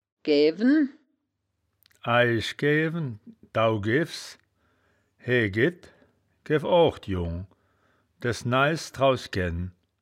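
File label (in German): "gewen", wittlicher woerterbuch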